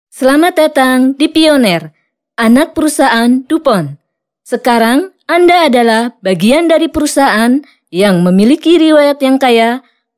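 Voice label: Indonesian, foreign accent voiceovers; foreign languages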